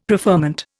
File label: "preferment", Indian; Dictionary